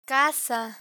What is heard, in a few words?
"caza", Spanish